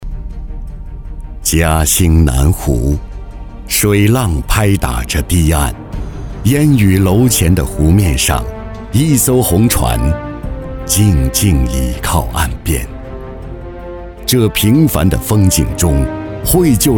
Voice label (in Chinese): 男 专题 百年党史